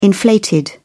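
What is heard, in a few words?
"inflated", British English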